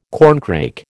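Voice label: "corncrake", American English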